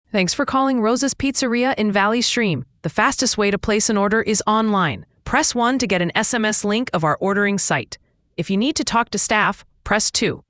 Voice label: prompt, ivr; rosas pizzeria